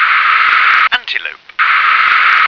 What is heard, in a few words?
"antelope", English